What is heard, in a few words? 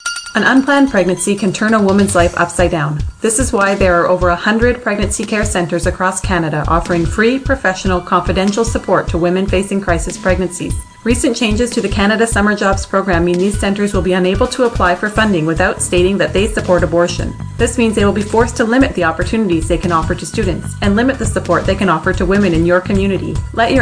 Spot complete with tag